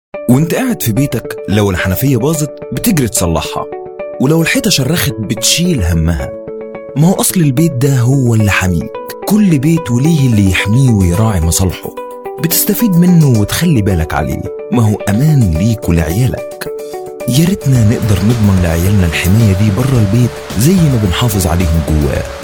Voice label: arabic voice over male narrator